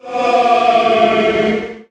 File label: man die